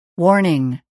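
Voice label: "warning", English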